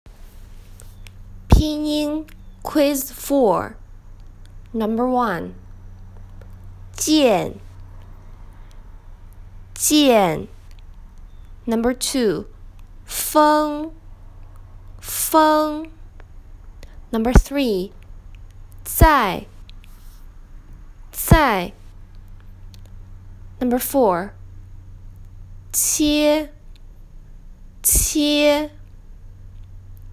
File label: consonants, chinese